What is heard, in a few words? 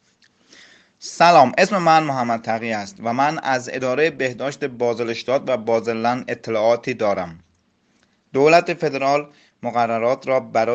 Farsi; kantonsarztlicher dienst; medizinische dienste; informationen in verschiedenen sprachen